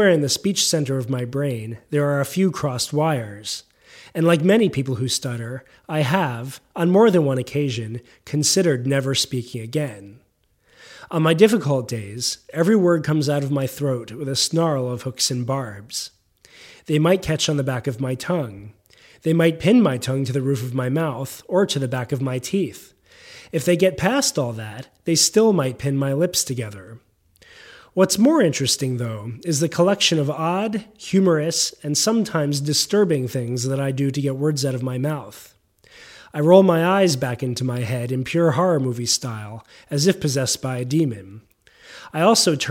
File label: stuttering